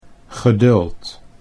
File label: "geduld", Dutch